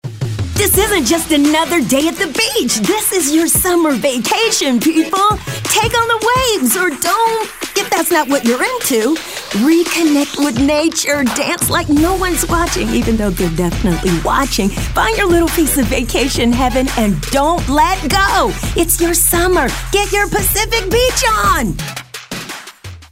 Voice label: High Energy